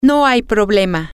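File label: no problem, Spanish, female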